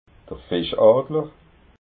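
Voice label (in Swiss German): "fischadler", Alsatian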